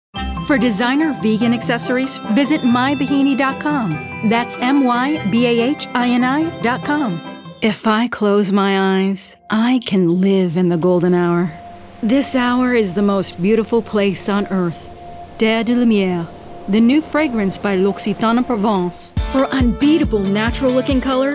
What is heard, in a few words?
Commercial